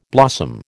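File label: "blossom", American English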